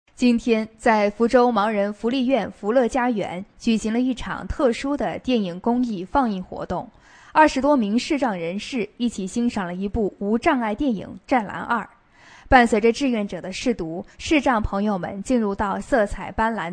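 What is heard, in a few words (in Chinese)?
女新闻 民生新闻 稳重大方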